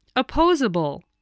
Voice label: "opposable", voice, spelling